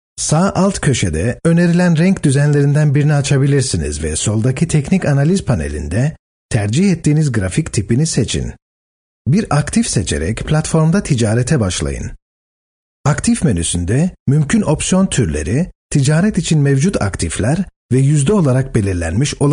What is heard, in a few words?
Turkish